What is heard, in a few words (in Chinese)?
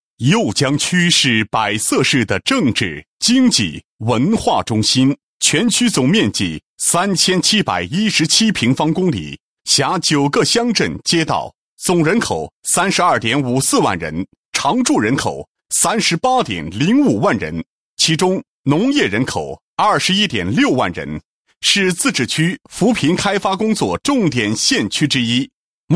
男, 国语男声配音, 男高音; 右江区芒果